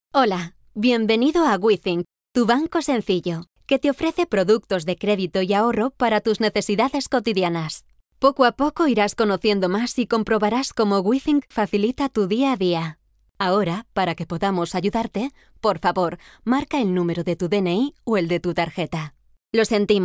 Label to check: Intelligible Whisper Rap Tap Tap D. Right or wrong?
Wrong